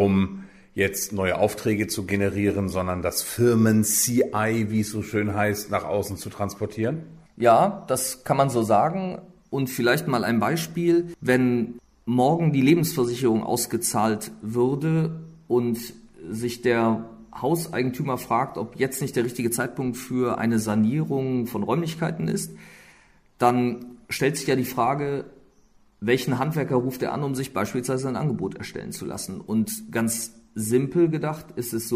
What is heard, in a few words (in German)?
anzeigen werbung; punktmacher